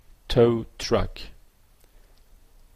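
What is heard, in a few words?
"tow truck", Canadian English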